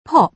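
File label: "pop", British English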